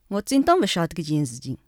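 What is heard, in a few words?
shaoxing, Chinese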